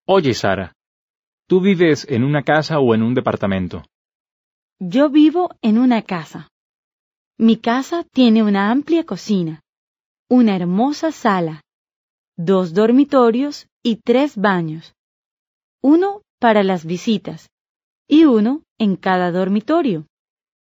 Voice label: Dialogue, Spanish